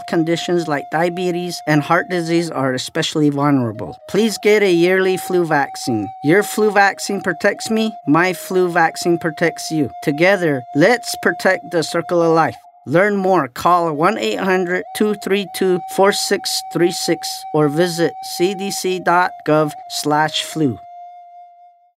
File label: serious protection navajo male, radio psas; flu; native-and-alaskan-psas